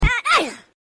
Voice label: god dayum sped up